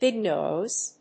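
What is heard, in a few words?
"big noise", English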